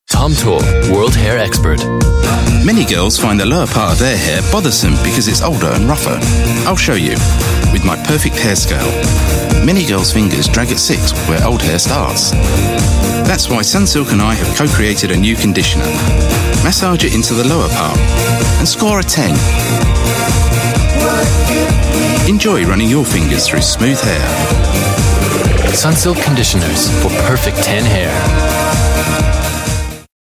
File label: UK English TVC; Sunsilk